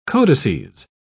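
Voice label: "codices", English, British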